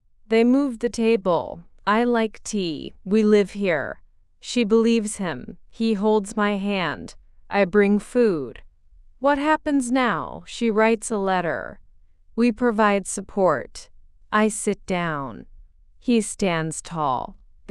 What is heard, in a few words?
AI speech